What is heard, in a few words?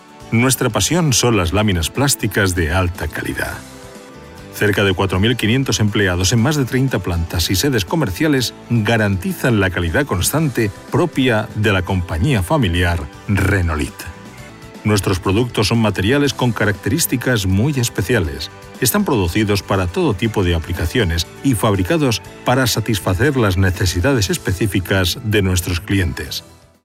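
Spanish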